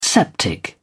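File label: "septic", British English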